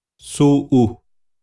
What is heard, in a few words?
"tsuꞌu", xavante; pronuncia